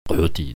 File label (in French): "kohoti", prononciation